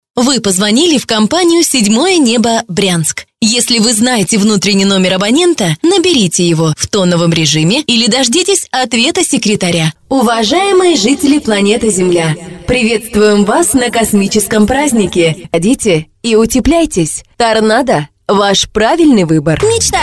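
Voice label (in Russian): голоса, Russian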